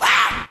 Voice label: "wow" shout; ooh ahh